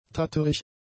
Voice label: "tatterig", German; adjectives

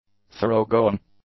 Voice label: "thoroughgoing", English; adjectives

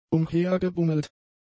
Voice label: "umhergebummelt", German; adjectives

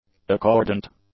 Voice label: "accordant", english; adjectives

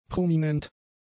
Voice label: "prominent", German; adjectives

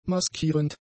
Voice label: "maskierend", German; adjectives

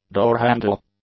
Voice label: "doorhandle", English; nouns

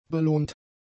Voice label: "belohnt", German; adjectives

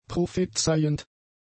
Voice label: "prophezeiend", German; adjectives

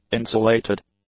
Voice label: "insulated", English; adjectives

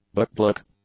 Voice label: "bookblock", English; nouns

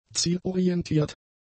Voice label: "zielorientiert", German; adjectives

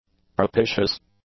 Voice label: "propitious", english; adjectives

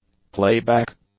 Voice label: "playback", English; nouns

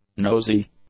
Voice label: "nosy", english; adjectives

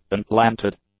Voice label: "unplanted", english; adjectives

